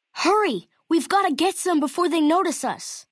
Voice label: male child take water; FNV